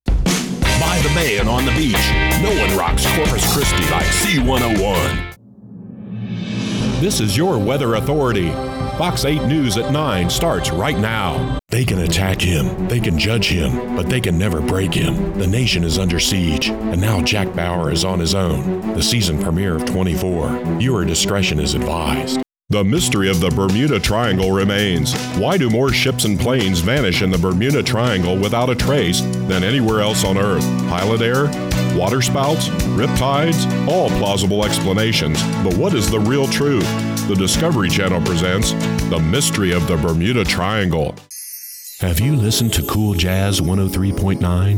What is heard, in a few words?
IMAGING PROMOS TRAILERS